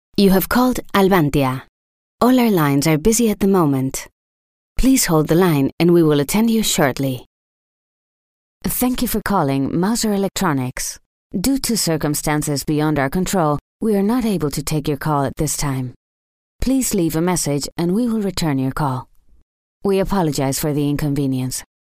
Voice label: en ivr